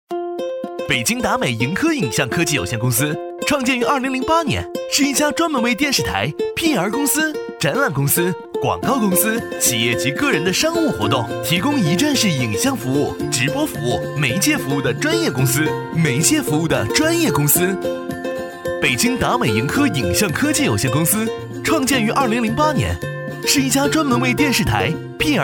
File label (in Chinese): 男 国 大音; MG飞碟; 北京达美盈科影像科技有限公司; 飞碟说配音